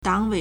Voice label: "dang3 wei3", Chinese